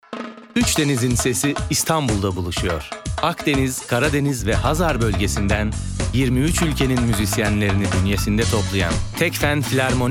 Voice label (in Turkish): enerjik tempolu